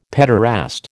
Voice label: "pederast", American